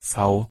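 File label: pronunciation v, German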